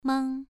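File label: "mang", tone 2, pronunciation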